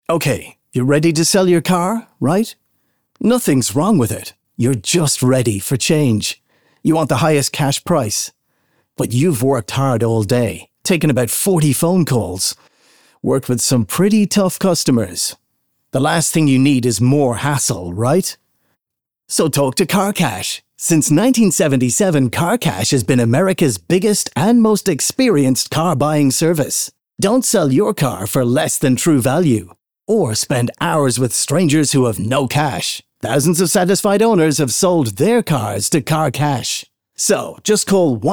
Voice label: english irish explainer videos, irish voice actors